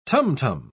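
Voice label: "tam-tam", British English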